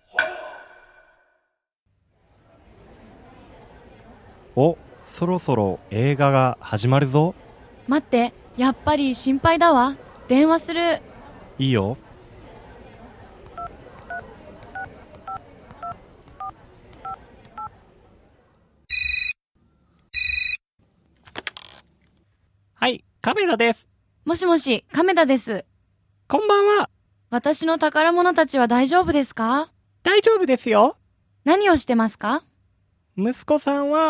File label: dialog; JapanesePod101; Beginner Season 1